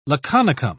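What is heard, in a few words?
"laconicum", British English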